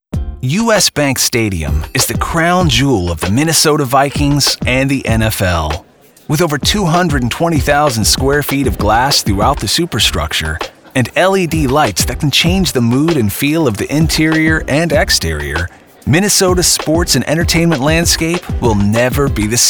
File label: Narration